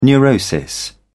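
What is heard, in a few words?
"neurosis", British English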